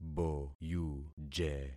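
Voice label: "boyuje", concatenated syllables; Affixing experiment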